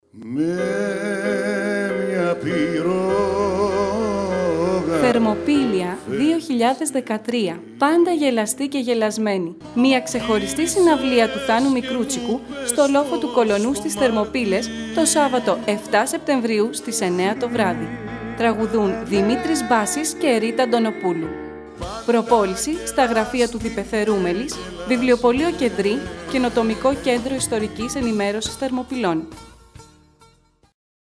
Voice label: spot; mikroutsikos